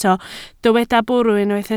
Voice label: "bwrw", Welsh